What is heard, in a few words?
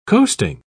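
"coasting", British English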